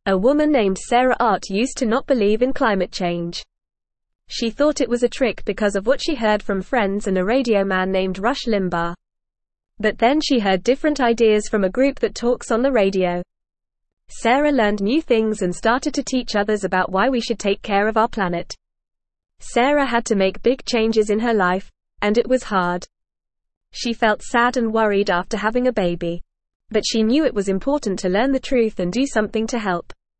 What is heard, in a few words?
English Newsroom Lower Intermediate FAST Reading; Sarah Learns About Global Warming and Teaches Other